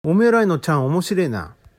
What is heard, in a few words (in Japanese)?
「ちゃん」, 旭弁 銚子弁; 親父; おじちゃん; 「おめーらいのちゃんおもしれーな」; （訳）お前んちの親父面白いな